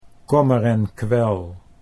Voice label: "kommer en kwel", Dutch

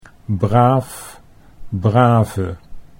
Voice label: braaf brave, Dutch

